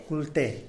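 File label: "kulte" wood pigeon, Zapotec; animals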